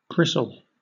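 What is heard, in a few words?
"bristle", British English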